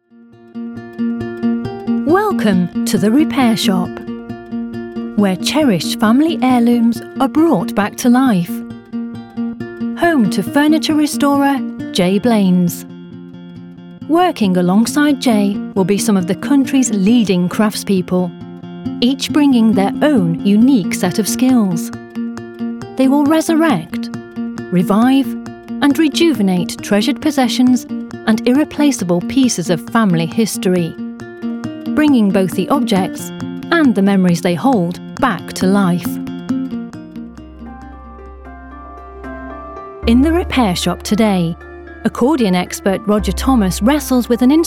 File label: Documentary